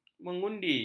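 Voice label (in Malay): "mengundi", Malay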